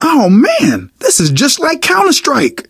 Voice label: take submachine gun, survivor voice, manager; source engine; left 4 dead 2